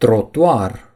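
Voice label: "sidewalk", Romanian; gcompris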